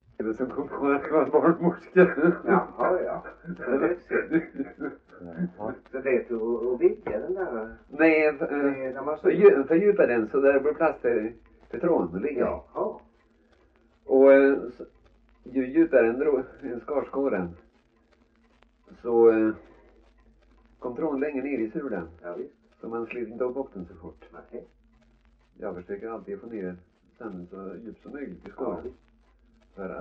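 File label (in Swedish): Värö, Swedish dialect; svenska sagor; Folkminnen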